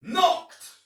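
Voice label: See Player Soldier Male; Sinecure; en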